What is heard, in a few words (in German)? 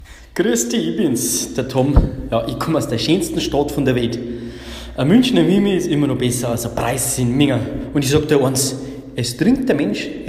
bayerisches